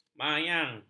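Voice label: "mayang", Malay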